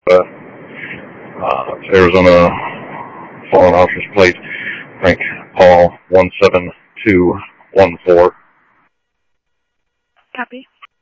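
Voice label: police radio scanner traffic, Mesa Simulcast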